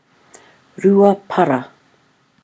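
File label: "ruapara", Māori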